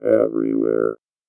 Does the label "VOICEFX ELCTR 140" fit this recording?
Yes